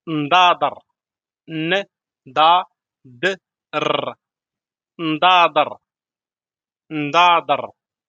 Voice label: glasses in moroccan arabic vocabulary darija